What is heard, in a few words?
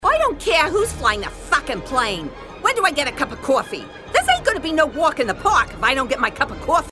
New York passenger angry rude